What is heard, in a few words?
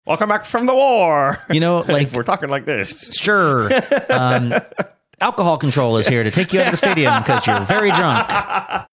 talking funny voices